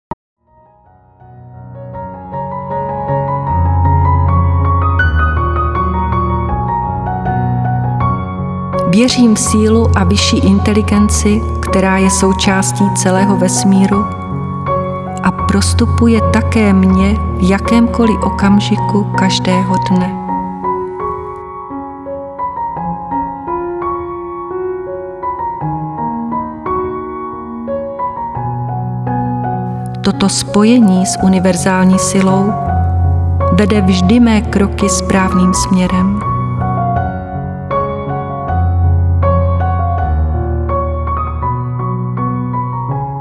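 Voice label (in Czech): Ukazka Meditace pro pozitivni myslenky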